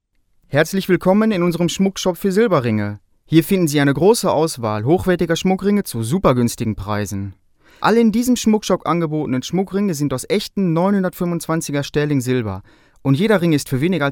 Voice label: locutor aleman; Deutscher Sprecher

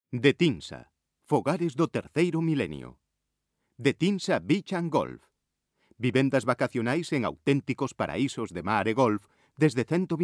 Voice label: locutor gallego; Galician male voiceovers

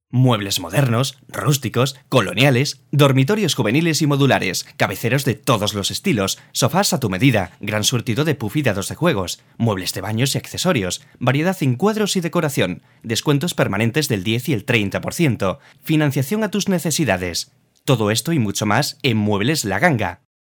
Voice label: locutor spain

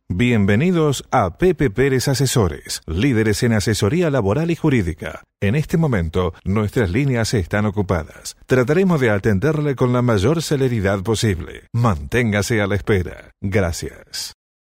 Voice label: locutor argentino argentinian voiceover; Argentinian Male voiceovers

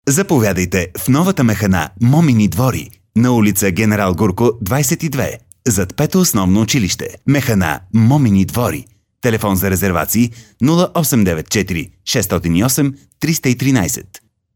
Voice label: locutor bulgaro

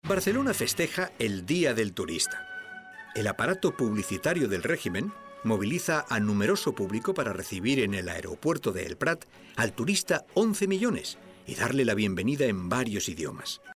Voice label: locutor narracion

